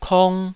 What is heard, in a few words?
"kong2", taiwanese syllables